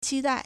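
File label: "qi1dai4", Mandarin Chinese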